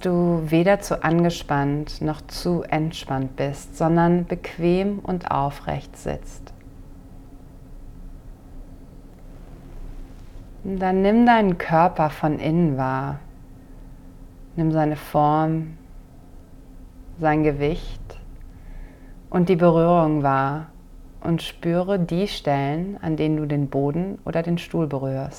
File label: German Breath Sound Body, guided meditations